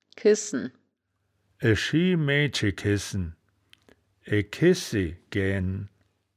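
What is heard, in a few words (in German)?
"kessen", wittlicher woerterbuch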